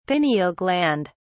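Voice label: "pineal gland", British English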